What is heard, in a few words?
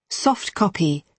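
"soft copy", British English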